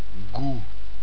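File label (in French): "gout", French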